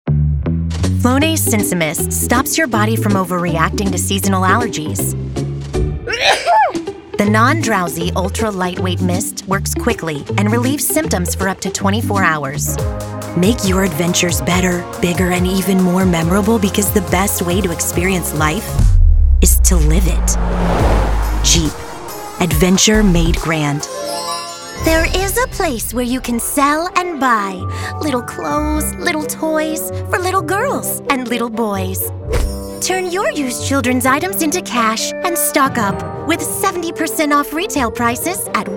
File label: Commercial